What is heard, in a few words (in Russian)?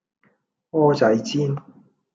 Голоса, Гонконгский; 請問我想去元朗馬田村要搭乜嘢車先去到